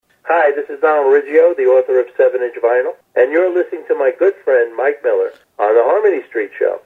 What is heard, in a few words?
promo